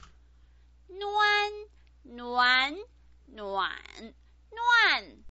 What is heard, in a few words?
"nuan", Chinese pinyin